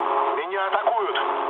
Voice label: aircraft damaged, mood low, speech ru